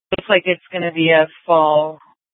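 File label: Scottsdale PD North Dispatch; Nth Dispatch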